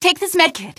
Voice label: health, female; npc; Garry's Mod; hl2